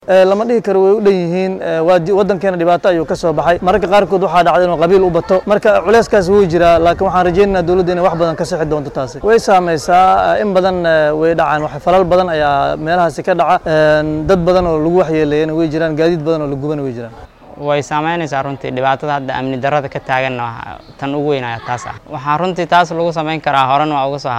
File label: VOX POP